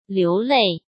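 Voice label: "流泪", Mandarin Chinese; hsk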